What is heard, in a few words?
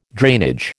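"drainage", American English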